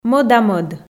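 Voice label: "мӧда-мӧд", Komi, female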